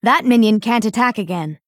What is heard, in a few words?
Female Dryad ERROR